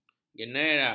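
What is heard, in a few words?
"genera", Malay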